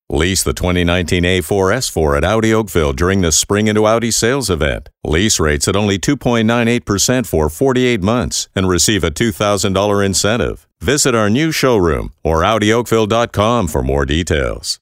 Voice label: english canadian automotive